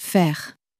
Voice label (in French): "faire", French; flashcards